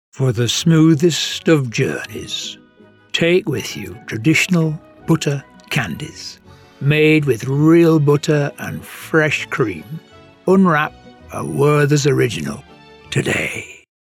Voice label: Sweets commercial